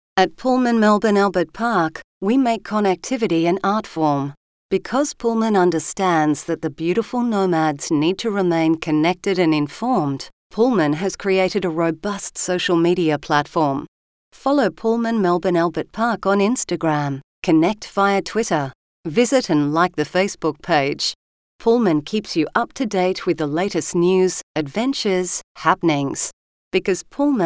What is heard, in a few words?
SOFT; PULLMAN MELBOURNE